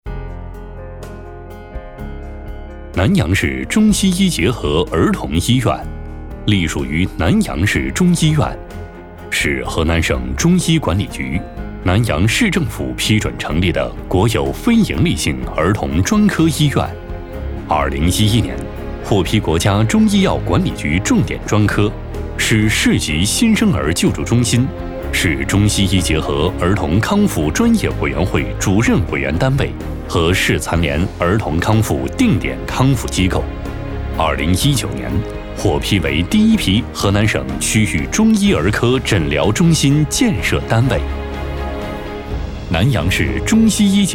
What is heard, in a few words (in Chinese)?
男 专题类医院介绍